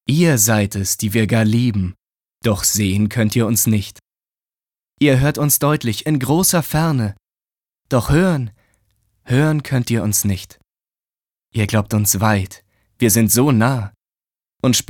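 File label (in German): poetisch, Sprecher, Männer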